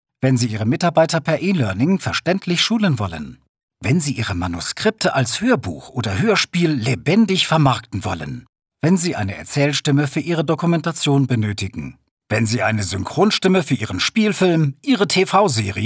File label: male German